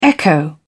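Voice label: "echo", British English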